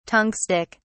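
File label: "tungstic", British English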